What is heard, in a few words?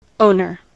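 "owner", US English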